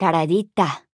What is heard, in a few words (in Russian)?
испанский, фраза; Сомбра